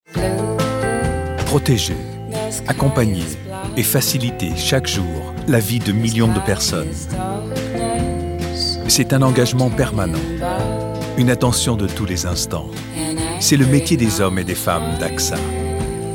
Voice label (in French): rassurant voix off voice over; AXA